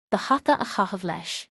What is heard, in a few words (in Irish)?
do hata a chaitheamh leis, Irish